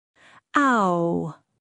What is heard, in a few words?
"aʊ", phonetics sound